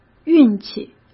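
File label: "运气", Mandarin Chinese; chinese flashcards; hsk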